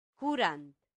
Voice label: "curant", latin; santillana